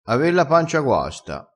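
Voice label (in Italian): "ave la pancia", vocabolario dialettale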